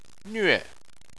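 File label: "nve" fourth tone, Chinese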